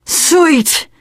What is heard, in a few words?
reaction positive, teen girl; source engine; left 4 dead 2; survivor